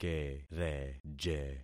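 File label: "kereje", concatenated syllables; Affixing experiment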